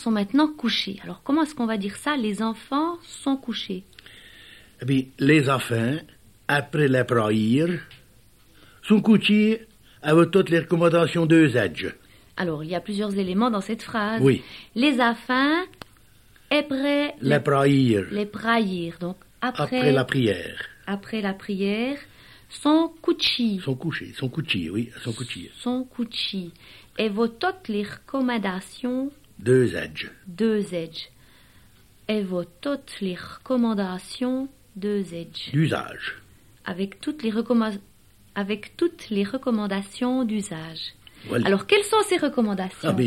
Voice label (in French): Emission en patois